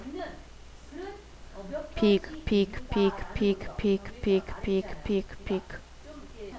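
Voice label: "pick", spoken word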